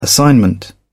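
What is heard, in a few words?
"assignment", British English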